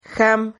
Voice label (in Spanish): "jam", Silabas